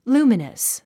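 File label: "luminous", English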